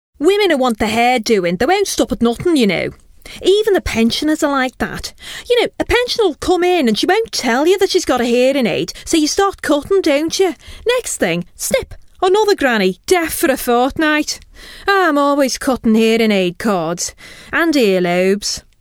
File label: Radio Drama